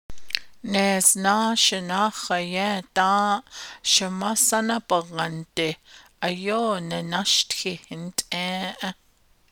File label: navajo; When I was ten years old I used to balk at my gr; Iterative (R)